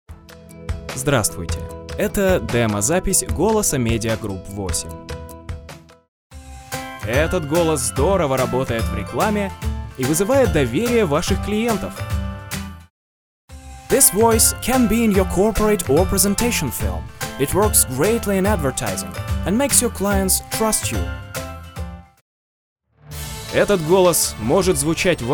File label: Male